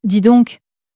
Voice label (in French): "dis donc", French; flashcards